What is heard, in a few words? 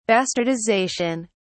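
"bastardization", British English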